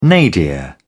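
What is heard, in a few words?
"nadir", British English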